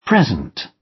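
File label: "present", British; Flora and fauna, agriculture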